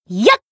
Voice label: Battle Shout; Rumi